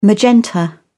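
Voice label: "magenta", British English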